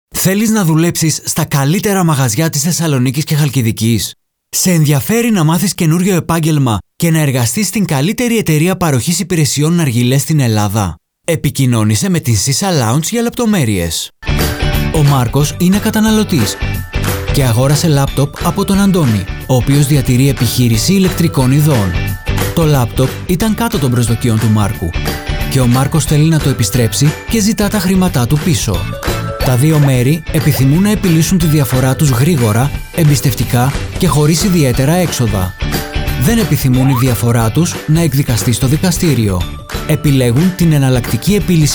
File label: Greek